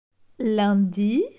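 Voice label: "Lundi", French; vocabulaire; le lexique du temps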